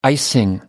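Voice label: "icing", British English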